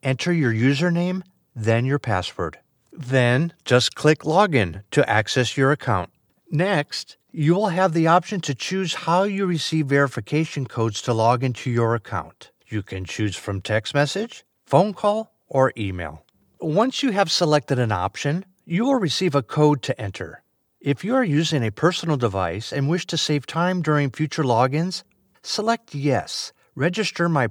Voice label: Credit Card Instructional Video, Professional Male Voice Over Talent; NOBLE